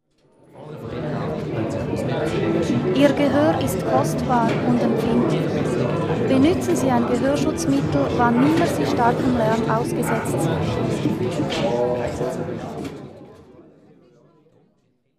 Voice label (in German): Sprachbeispiel ohne Gehoerschaden